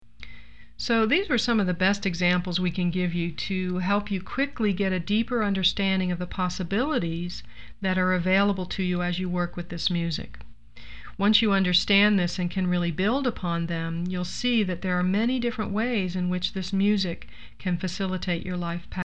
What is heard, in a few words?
Narration